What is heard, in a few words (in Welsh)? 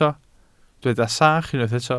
"sach", Welsh